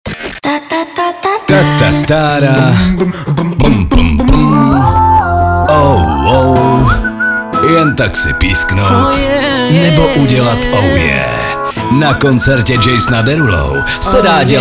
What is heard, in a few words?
promo; Poslouchej Evropu 2 a soutěž s námi